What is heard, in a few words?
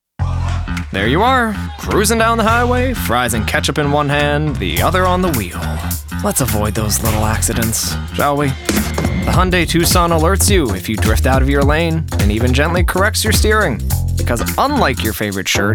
Commercial